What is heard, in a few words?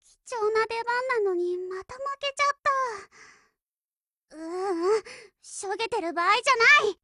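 BREAK, Japanese, Voice; Lusa 0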